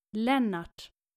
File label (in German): "lennart", nordische jungennamen